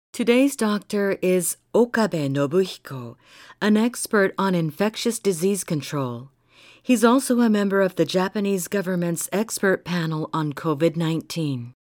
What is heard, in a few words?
en serious narration